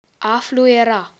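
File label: whistle, spoken Romanian; PictureDictionary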